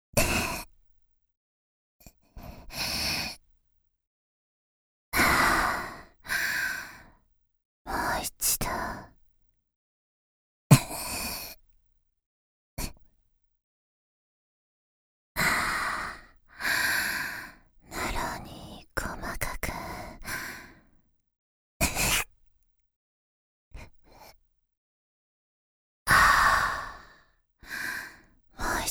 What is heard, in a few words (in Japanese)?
（ささやき）